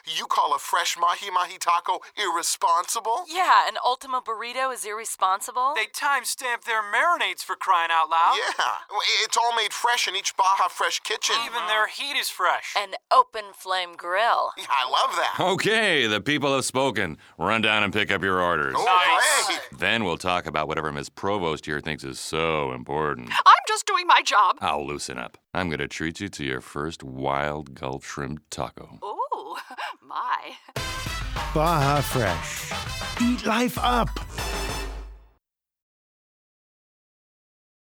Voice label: Phone Conference; Baja Fresh